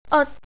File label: "eot", Cantonese